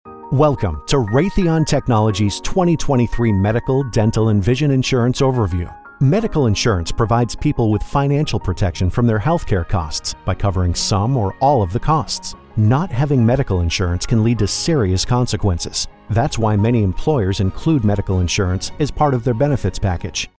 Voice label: e-learning Insurance Overview; e-learning Voiceover Demo